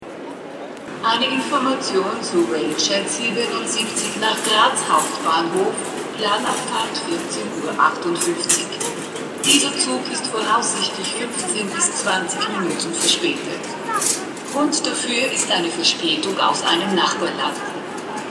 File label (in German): durchsage am bahnhof